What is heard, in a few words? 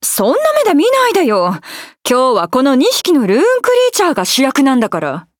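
start, Japanese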